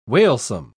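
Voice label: "wailsome", British English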